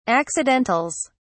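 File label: "accidentals", British English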